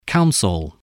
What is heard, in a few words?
"council", voice spelling